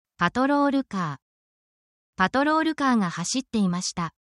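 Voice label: japanese vehicle and transportation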